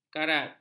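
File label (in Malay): "karat", Malay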